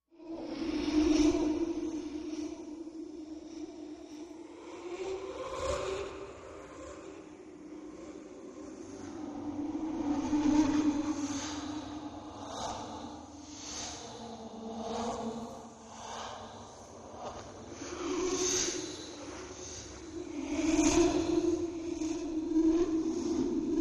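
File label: Complicated Voices Multiple, horror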